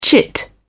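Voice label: "chit", English, British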